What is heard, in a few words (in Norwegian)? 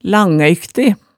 "langøykte", hemsedalsmaalet tale